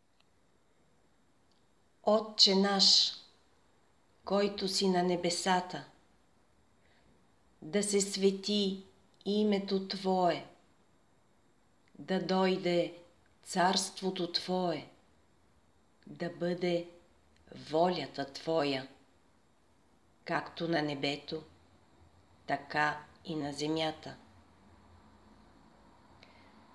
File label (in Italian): Padre nostro voce femminile; preghiera domenicale